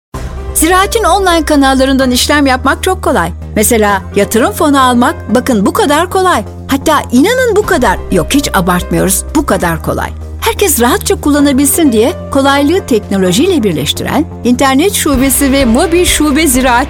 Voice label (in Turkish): reklam; ziraat bank